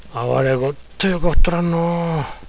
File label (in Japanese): あわりゃごっといよかふっとらっのー。, 有田弁; あいつはいつもいい格好ばっかりつけてるなぁ。; よかふい; いい格好つけ